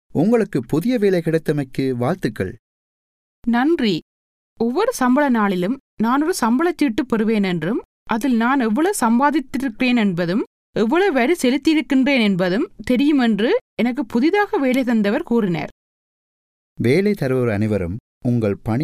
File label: working in australia tamil